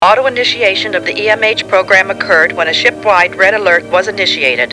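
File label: auto initiation EMH, computer voice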